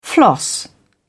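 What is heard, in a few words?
"floss", British English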